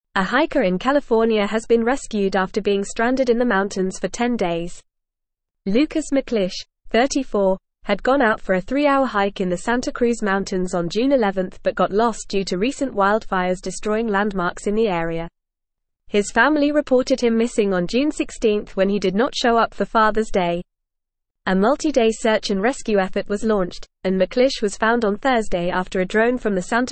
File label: English FAST Reading; Hiker Rescued After 10 Days Stranded in Mountains